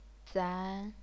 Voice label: "zan2", chinese pinyin